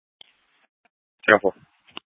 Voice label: Sheriff, scanner P25; Shrf Lakes

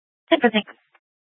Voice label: PD Dispatch

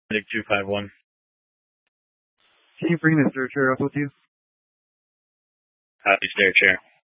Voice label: Fire Departments, scanner

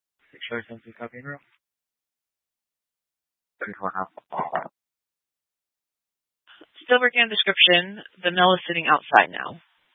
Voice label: Dispatch, scanner

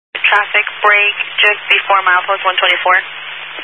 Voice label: Highway Patrol, Phoenix Metro West Area, scanner

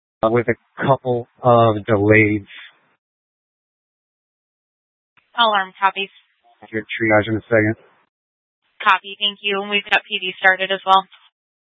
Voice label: Fire Departments Mesa Simulcast; TOPAZ

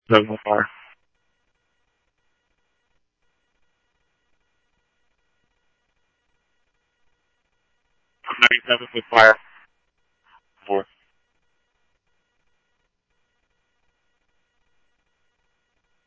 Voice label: Scottsdale Police, scanner audio

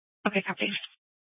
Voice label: Dispatch, Mesa PD; 4 Superstition Dispatch

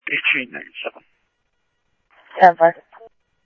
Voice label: Scottsdale PD Scottsdale Police, scanner audio

